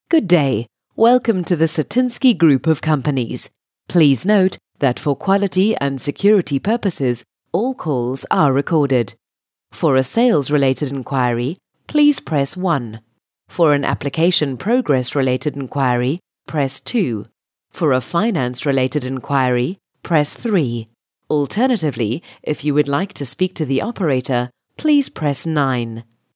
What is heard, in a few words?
Welcome IVR; IVR (Interactive Voice Response) or Auto-Attendant message; voice recording